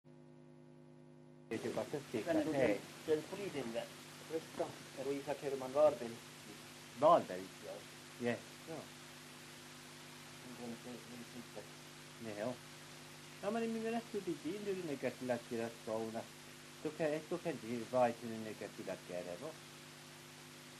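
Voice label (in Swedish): Överkalix Norrbotten, dialekter; Folkminnen; Svenska sagor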